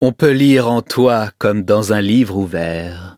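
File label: level up, French; Cookie Run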